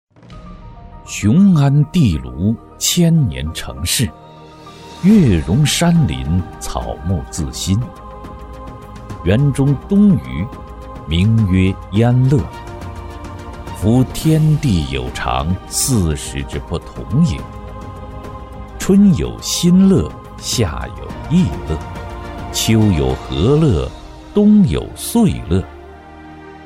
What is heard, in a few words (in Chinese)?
男, 朗诵 古文诵读